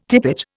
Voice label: "tippet", Indian